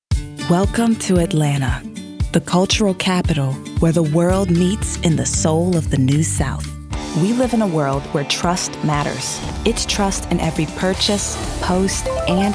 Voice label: VO Commercial